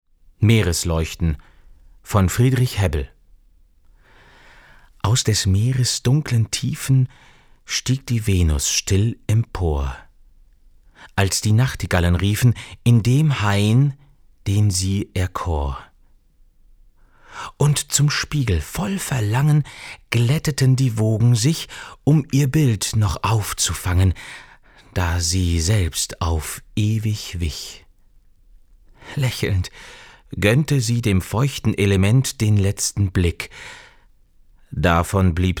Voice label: de lesung, deutsch maenner; sprecher

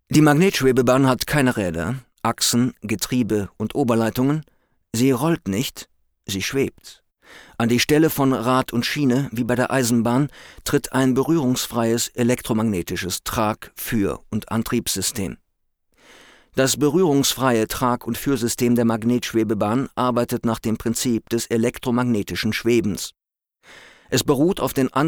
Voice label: de image, deutsch maenner; sprecher

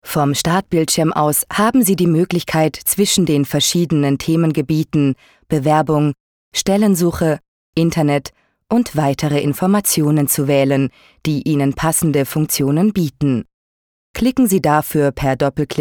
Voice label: elearning, deutsch frauen

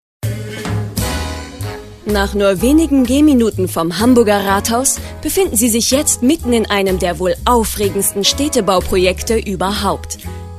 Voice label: deutsch frauen showreel; sprecher

